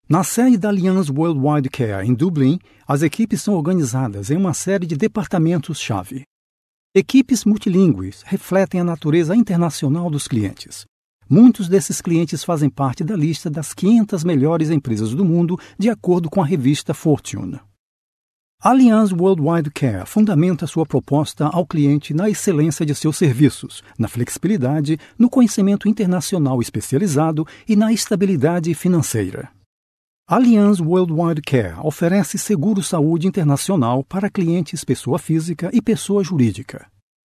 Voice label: Portuguese BRA M Corporate, brazilian portuguese; voice over